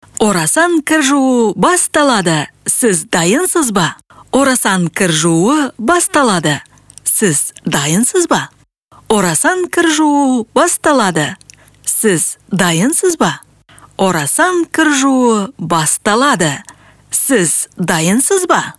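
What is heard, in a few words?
kazak female commercial; voice demos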